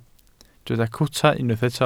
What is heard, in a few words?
"cwta", Welsh